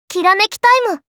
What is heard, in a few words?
"Kirameki", Kawaii Voice, Commands